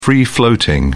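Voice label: "free floating", British English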